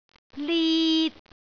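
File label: "lit3", Cantonese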